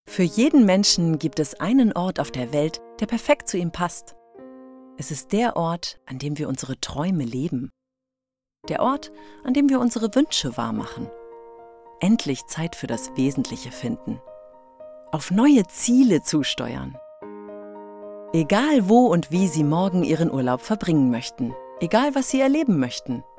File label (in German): Werbung